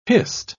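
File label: "hist", British English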